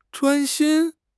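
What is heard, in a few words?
"zhuan1 xin1", Chinese; 汉语口语新时代-初级下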